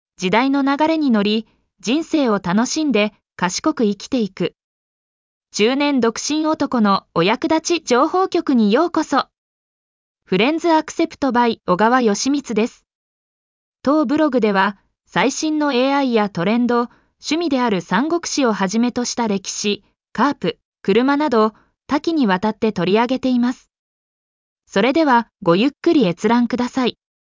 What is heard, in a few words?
announce